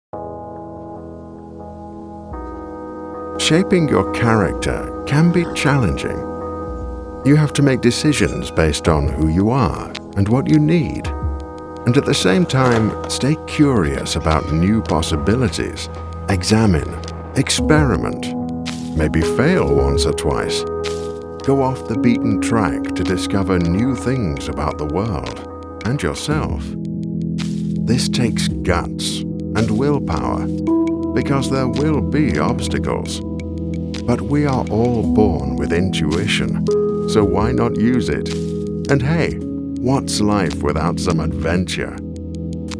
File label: Shoe Brand Commercial